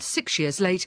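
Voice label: sikSH ears late, Standard Southern British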